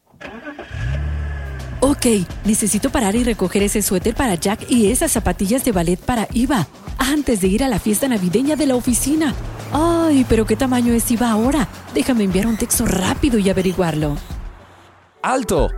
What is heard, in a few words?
STOP TEXTING SPANISH